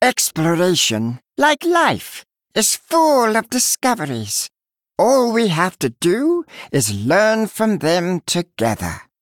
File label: Male Murloc Victory; Finley